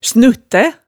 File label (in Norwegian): "snuttæ", hemsedalsmaalet